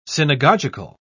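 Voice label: "synagogical", English, British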